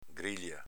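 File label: "grilia", Venetian dialect; s.f. griglia, rete metallica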